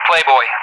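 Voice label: "Playboy", English; Callsign; DCS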